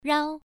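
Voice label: "rao", tone 1, pronunciation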